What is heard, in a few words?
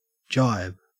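"jibe", Australian English; pronunciations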